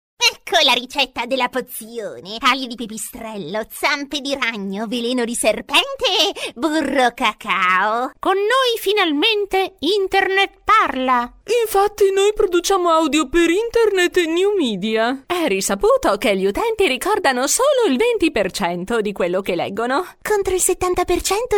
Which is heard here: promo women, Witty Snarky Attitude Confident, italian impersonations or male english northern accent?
italian impersonations